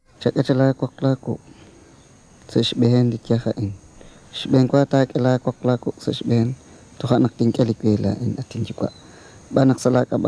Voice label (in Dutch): kekchi priester lang